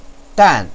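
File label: "dan4", Mandarin Chinese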